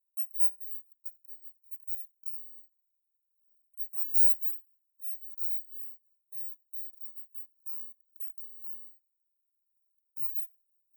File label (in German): Sleeplearning Magenband Leise ohne Musik